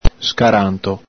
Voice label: "scaranto", Veneto